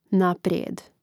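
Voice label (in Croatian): "naprijed", Hrvatski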